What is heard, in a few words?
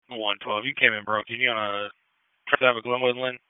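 Police Southwest District Dispatch, p25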